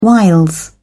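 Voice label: "wiles", British English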